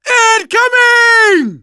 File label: incoming, manager, survivor, voice; Left 4 Dead 2; left4dead2 dlc2